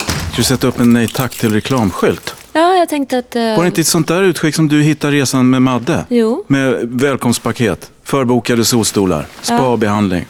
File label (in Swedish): radioreklam; resor